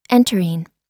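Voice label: "entering", American English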